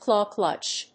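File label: "claw clutch", English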